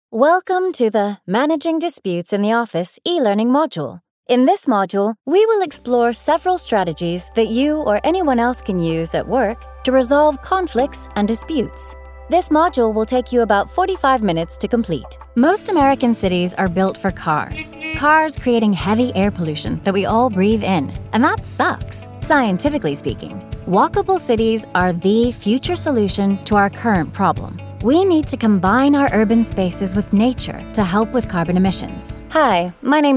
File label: elearning modules elt conversational teen kid us